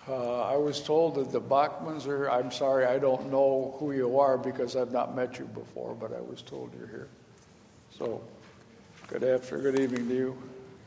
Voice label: Welcome and Announcements